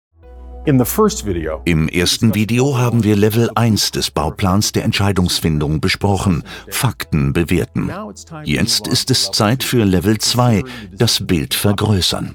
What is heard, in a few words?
E Learning Entreprise, German voice-over, Educational and technical; Crestcom